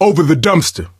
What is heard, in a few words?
misc directional, coach, survivor voice